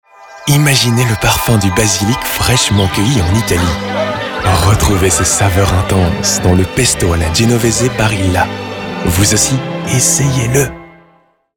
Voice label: PUB exaltant souriant frais; Barilla

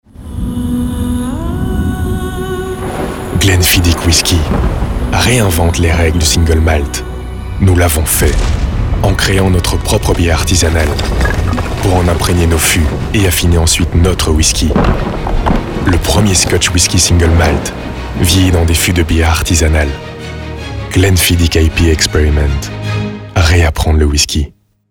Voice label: PUB ton grave conteur; Glenfiddich

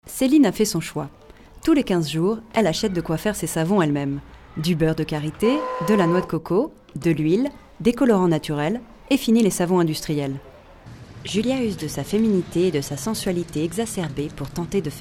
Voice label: DOCUS REPORTAGES

